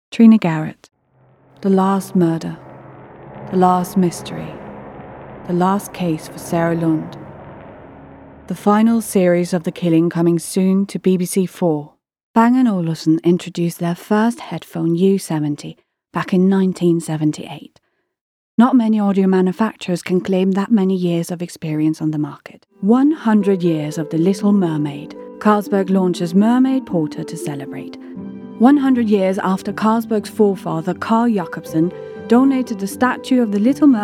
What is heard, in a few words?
female english with slight danish accent compilation